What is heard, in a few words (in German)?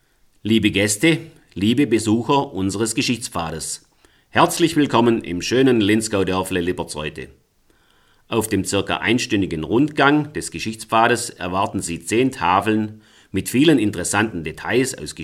Begruessung Hochdeutsch